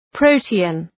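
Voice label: "protean", English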